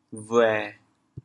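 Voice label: "bhuê7", Teochew, chaoyang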